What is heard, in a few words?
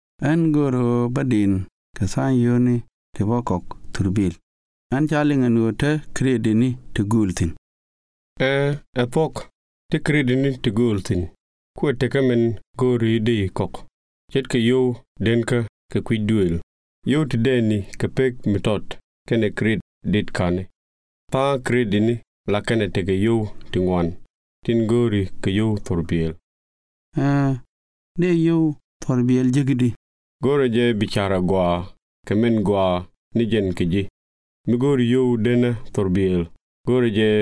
types of credit nuer